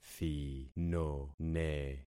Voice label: "thinone", concat syllables; Affixing experiment

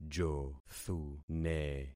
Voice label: "jothune", concat syllables; Affixing experiment

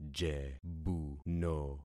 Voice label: "jebuno", concat syllables; Affixing experiment

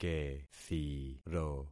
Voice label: "kethiro", concat syllables; Affixing experiment

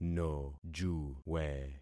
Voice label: "nojuwe", concat syllables; Affixing experiment

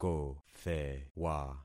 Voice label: "kothewa", concat syllables; Affixing experiment

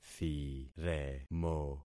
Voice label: "thiremo", concat syllables; Affixing experiment

